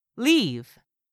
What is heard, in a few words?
"LEAVE", english; 3000 words